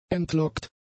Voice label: "entlockt", German; adjectives